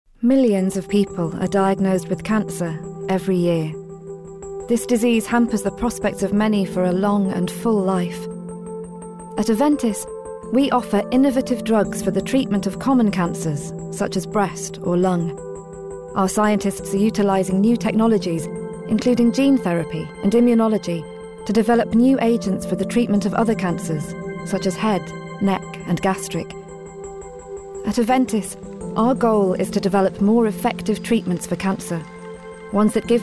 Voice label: Voiceovers UK